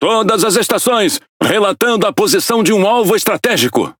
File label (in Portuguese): Speech Quick Commands Enemy Ship, PT BR; World Of Warships